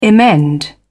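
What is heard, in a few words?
"emend", British English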